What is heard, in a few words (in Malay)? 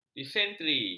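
"disentri", Malay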